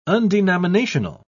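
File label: "undenominational", British English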